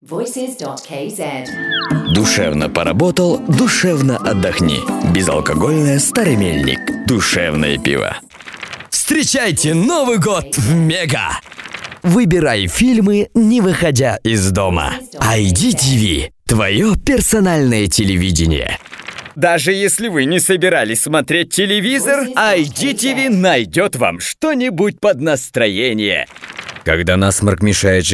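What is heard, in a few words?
Russian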